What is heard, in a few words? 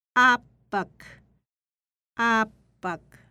Inuit father; Phonetics